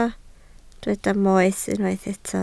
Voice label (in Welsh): "moes" Welsh